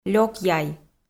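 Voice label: "лёк яй" Komi, female; kpv audio dict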